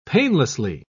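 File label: "painlessly", British English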